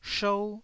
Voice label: "shou1", taiwanese